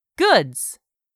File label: "GOODS", english; 3000 words